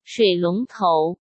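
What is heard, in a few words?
"水龙头", Mandarin Chinese; hsk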